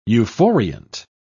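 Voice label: "euphoriant", British English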